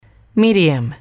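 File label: "medium", English, female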